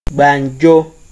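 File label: "gbanjo", Nigerian Pidgin, male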